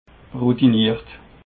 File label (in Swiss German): "routinert", alsace